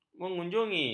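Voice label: "mengunjungi", Malay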